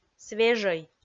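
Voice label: "свежӧй", Komi, female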